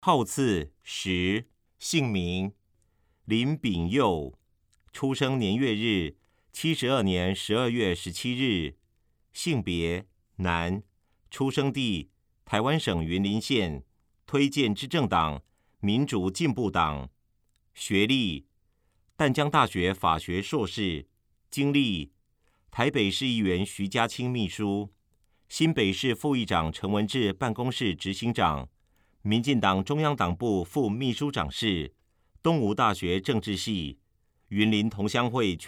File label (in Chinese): 國語; 新北市; 有聲公報; 市議員; 第3選區